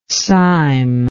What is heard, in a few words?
"cyme", english